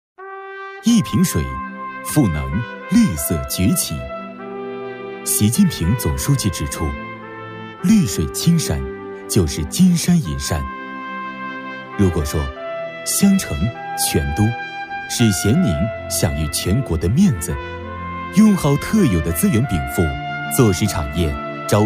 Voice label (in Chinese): 男 宣传配音 大气抒情; 年轻全能; 大气浑厚; 咸宁